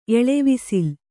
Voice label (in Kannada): "ಎಳವಿಸಿಲ್", Kannada